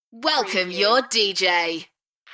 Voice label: UK female; beanstalk audio

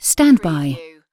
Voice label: UK female stand by; lynne publishing

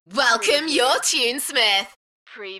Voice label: UK female, Welcome your tunesmith, Enthusiastic; beanstalk audio

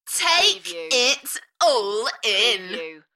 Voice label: UK female, Take it all in, Enthusiastic; beanstalk audio

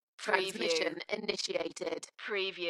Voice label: UK female, Transmission initiated, Dalekness; beanstalk audio

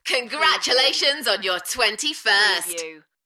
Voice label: UK female, Enthusiastic; Congratulations on your 21st

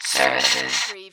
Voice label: Robot voice, "services"; lynne publishing

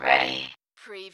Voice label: Robot voice, "ready"

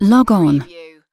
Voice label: UK female "log on"; lynne publishing

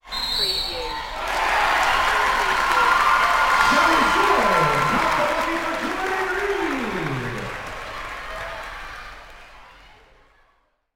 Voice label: Basketball Announcer Speaking with Crowd Cheering; lynne publishing

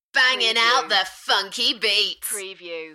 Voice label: UK female Enthusiastic; Banging the funky beats

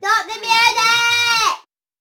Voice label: Child says Stop the music; beanstalk audio

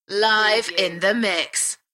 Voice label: UK female; Live in the mix; beanstalk audio

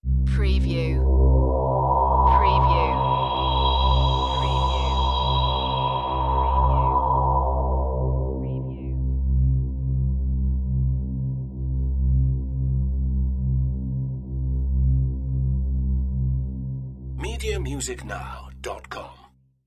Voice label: Filter Vox

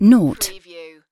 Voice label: UK female "0" (naught); lynne publishing

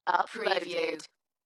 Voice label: UK female, "Uploaded", Dalekness; beanstalk audio

